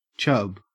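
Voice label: "chub", English, Australian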